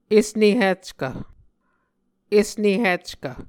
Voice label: "esnehickv", Muscogee